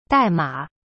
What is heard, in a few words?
"dai4ma3", Chinese; cyberchinese